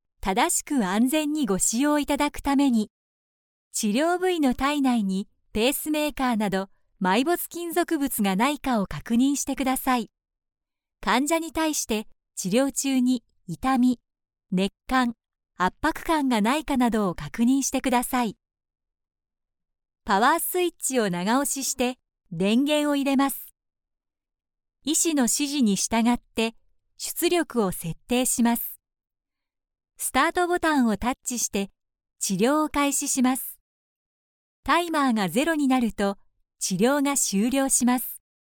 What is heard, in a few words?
female